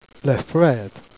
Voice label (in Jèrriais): "fréthe", Jèrriais